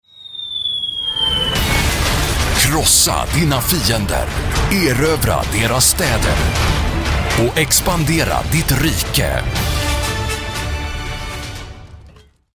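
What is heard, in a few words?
Swedish dramatic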